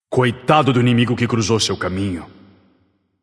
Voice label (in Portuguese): notify slain you enemy, vox caster portuguese